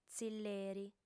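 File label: "tzilleri", Sardinian, female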